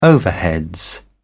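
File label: "overheads", British English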